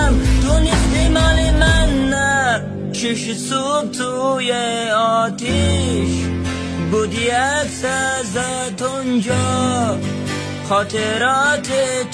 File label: Male Man